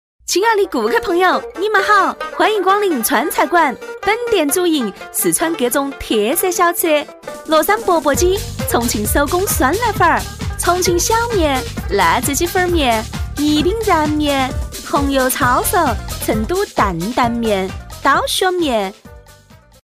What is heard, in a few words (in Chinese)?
女, 四川话方言店招, 亲爱的顾客朋友，你们好！欢迎光临川菜馆; 女45-四川话方言店招【亲爱的顾客朋友，你们好！欢迎光临川菜馆】